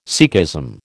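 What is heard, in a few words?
"Sikhism", American English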